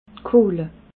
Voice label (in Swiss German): "kohle", alsace